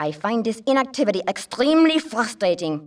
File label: Female; baldurs1